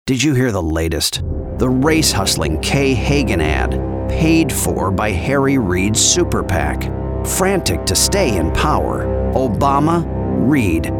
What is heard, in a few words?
Male Voice Over Political